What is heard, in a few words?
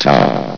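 "top", male; Source Engine; bshift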